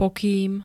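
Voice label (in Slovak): "pokým", Slovak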